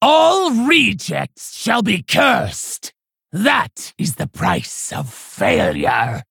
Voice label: Female Orc Half Health

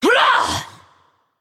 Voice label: Female Naga Attack

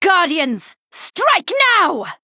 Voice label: Female Night Elf Greetings; Bacon Shop

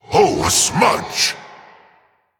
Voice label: Male Elemental Attack

